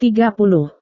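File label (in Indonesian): "tiga puluh", Indonesian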